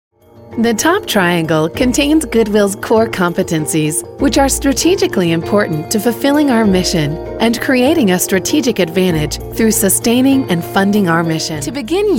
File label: E Learning